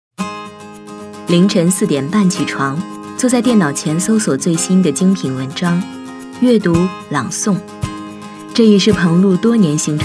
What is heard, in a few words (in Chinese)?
女 专题 亲切专业人物纪录片